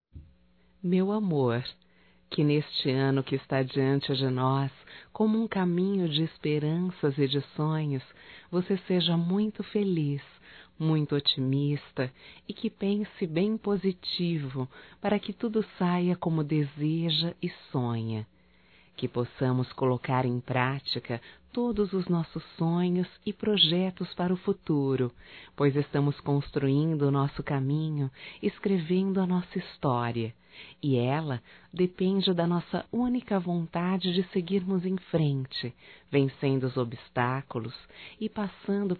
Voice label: ANO NOVO ROMANTICO, fem; Natal e ano novo; Telemensagens 2017

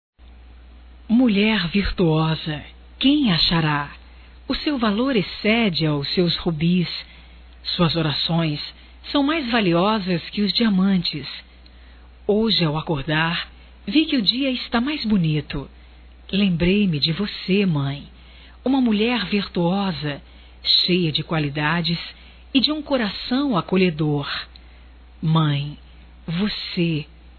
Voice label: MÃE AE EVANGELICA (F); Dia das Maes; Telemensagens 2017

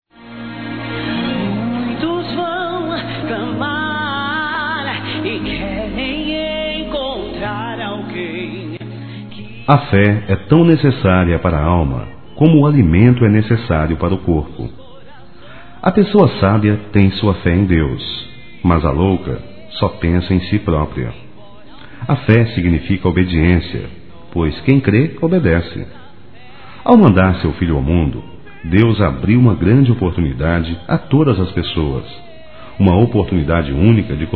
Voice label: Evangélico Aniversário Filha Masculino

